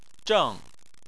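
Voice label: "zheng" Chinese, fourth tone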